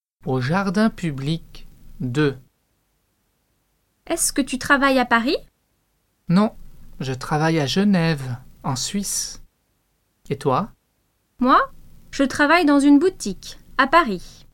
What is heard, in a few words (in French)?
Dialogue au jardin public